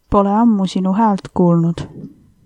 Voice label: long time no see, estonian